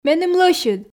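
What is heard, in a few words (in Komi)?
"меным лӧсьыд", Komi, female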